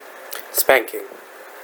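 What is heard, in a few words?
"spanking", US English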